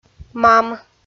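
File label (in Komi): "мам", Komi-Zyrian, female; kpv audio dict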